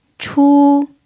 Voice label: "chu1", Mandarin pinyin